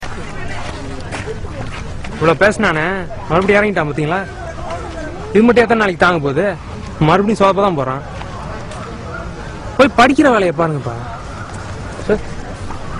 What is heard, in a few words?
Tamil